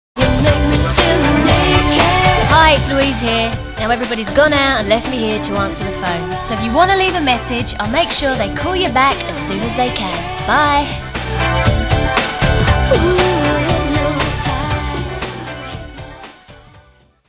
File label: Answering machine Message